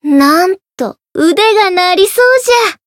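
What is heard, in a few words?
encount fear, Japanese; leviachan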